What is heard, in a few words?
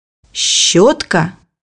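"brush", Russian; bukvar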